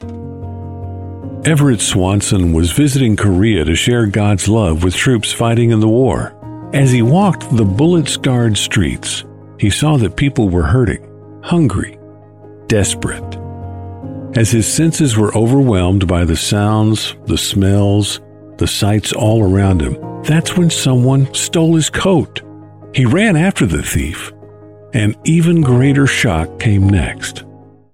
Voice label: narration storyteller

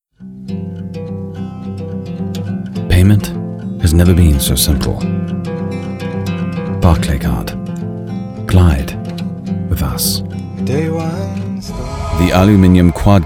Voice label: UK Commercial

